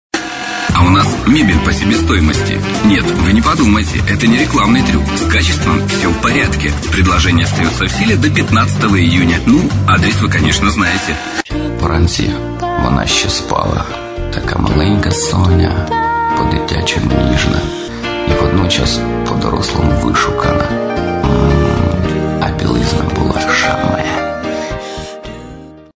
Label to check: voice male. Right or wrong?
Right